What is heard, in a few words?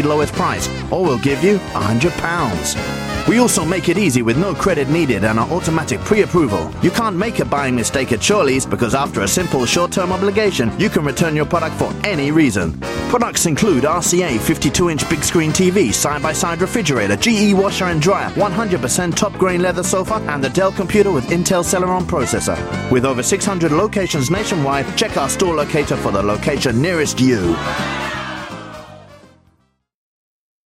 sales campaign